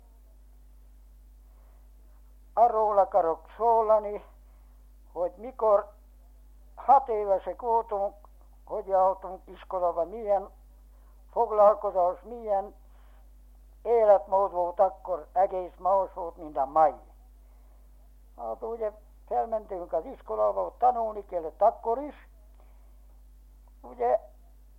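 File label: dialect collection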